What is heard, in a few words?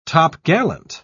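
"topgallant", British English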